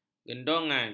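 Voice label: "gendongan", Malay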